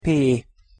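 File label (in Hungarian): "p", Hungarian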